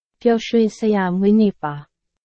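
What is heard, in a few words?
Burmese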